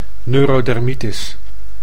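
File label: "neurodermitis", Dutch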